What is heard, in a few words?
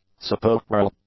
"sepulchral", English; adjectives